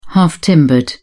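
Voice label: "half-timbered", British English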